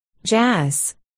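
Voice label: "jazz", spoken word, articulation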